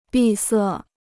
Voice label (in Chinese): "闭塞", Chinese